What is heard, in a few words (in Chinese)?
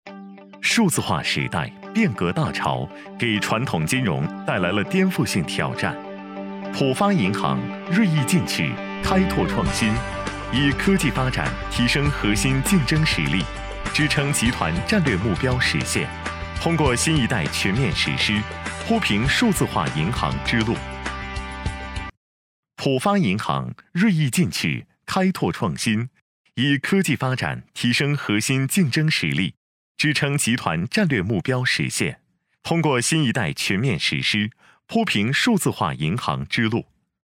男 企宣 年轻时尚 科技; 浦发银行